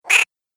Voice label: Cartoon Gremlin Ducky, cartoon voice